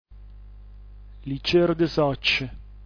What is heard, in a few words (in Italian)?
"licrdsocc", dialetto giulianova